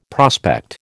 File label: "prospect", American